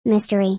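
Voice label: "mistery", English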